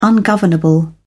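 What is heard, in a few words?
"ungovernable", British English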